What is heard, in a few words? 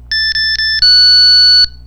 GPS Glitch; pixhawk